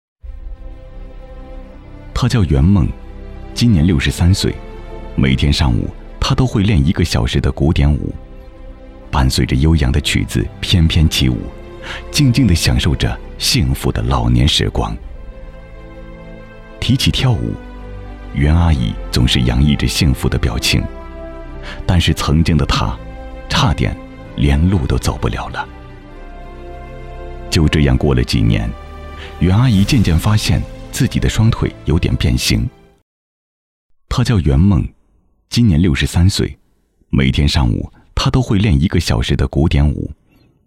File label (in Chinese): 专题人物感人